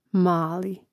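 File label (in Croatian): "mali", Croatian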